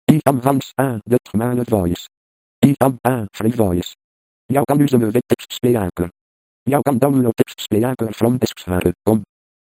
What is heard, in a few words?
dutch male